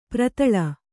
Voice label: "ಪೆರೆದಲೆ", Kannada

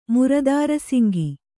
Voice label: "ಮುರುದಾರಸಿಂಗಿ", Kannada

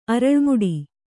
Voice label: "ಅರಳ್ಮುಡಿ", Kannada

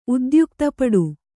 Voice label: "ಉದ್ಯುಕ್ತಪಡು", Kannada

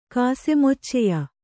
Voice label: "ಕಾಸೆಮೊಚ್ಚೆಯ", Kannada

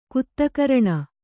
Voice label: "ಕುತ್ತಕರಣ", kannada

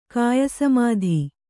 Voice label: "ಕಾಯಸಮಾಧಿ", Kannada

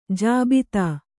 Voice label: "ಜಾಬಿತಾ", Kannada

